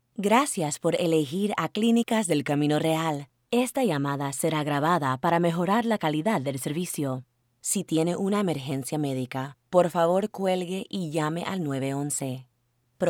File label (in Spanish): Clinic On Hold Español